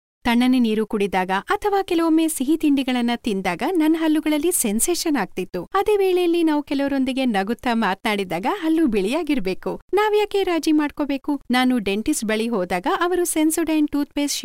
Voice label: KANNADA FEMALE